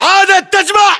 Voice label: regroup, english voiceovers; ModernWarfare; localized english